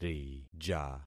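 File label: "rija", concatenated syllables; Affixing experiment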